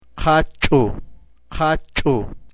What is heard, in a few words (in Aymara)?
"qhachu", Aymara